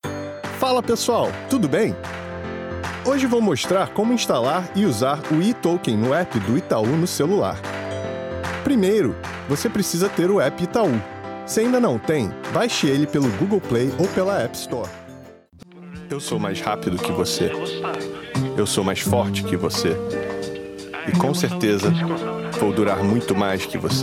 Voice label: Brazilian Portuguese